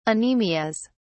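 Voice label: "anemias", British English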